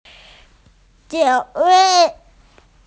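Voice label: "seat", Mandarin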